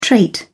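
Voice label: "trait", British English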